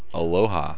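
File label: "aloha", USA, male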